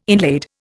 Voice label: "inlaid", Indian